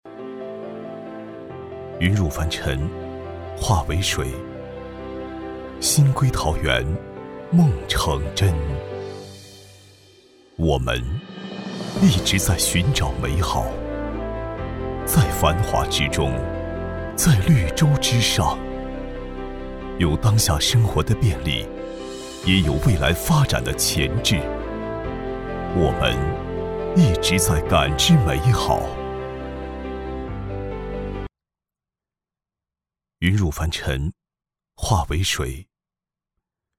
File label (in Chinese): 男 温暖阳光, 厚重有力 舒缓抒情